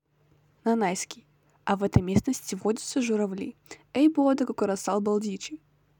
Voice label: нанайский предложение

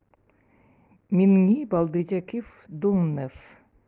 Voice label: Край эвенкийский язык

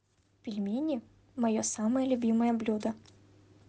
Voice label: Русский предложение

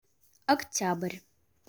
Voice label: октябрь, русский

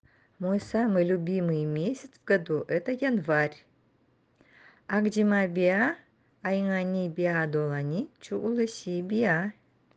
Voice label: январь, нанайский

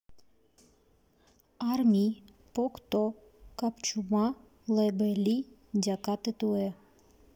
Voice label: ульчский

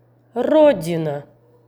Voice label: произношение слова Родина

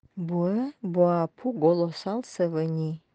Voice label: Край нанайский язык

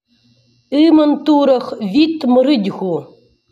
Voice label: Предложение на нивхском

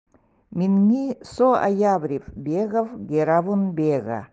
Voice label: Приложение январь эвенкийский

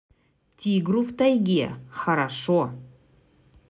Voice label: РУССКИЙ Тигру в тайге хорошо

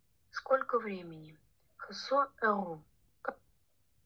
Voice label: время ульчский; Хони пулэ эрувэ си такурисе?

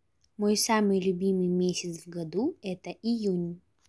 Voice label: июнь, русский

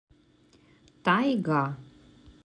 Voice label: "Тайга", русский; транскрипция [тай’га]; Диалог культур народов Амура

